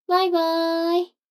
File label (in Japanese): 女の子 台詞